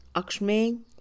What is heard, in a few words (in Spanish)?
"escama", Mixe, Mujer; INALI